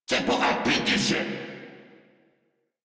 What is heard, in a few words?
Reload Crewmen, en; Warframe; Corpus Crewmen; Crewman A; Battle Barks